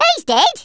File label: "fatality", Brummie; Worms Armageddon